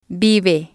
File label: "vive", Spanish